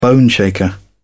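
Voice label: "boneshaker", British English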